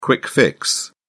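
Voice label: "quick fix", British English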